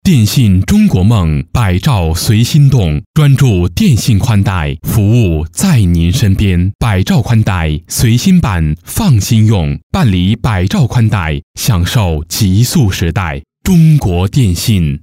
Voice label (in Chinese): 男23 广告（年轻）; 中国电信广告